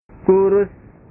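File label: "kurus", Indonesian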